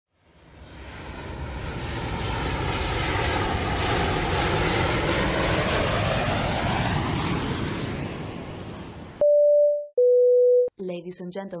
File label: FLIGHT ATTENDANT VOICE